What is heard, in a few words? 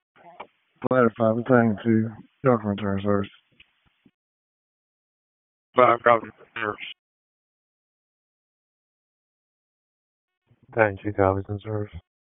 FIRE OPS, p25; FIRE OPS 1; wake